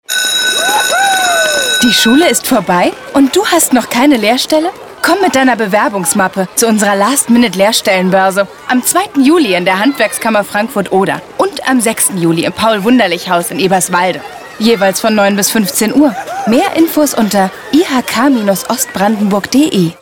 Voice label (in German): IHK Werbung